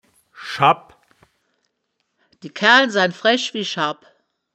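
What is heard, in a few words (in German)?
"schabb", Wittlicher Wörterbuch; das wittlicher woerterbuch; woerterbuch vertonung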